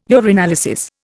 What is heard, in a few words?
"urinalysis", Indian